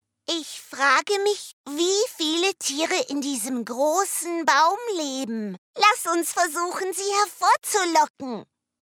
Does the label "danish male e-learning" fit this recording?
No